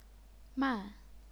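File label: "man", fourth tone, chinese pinyin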